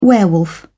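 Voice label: "werewolf", British English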